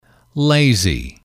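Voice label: "lazy", English, male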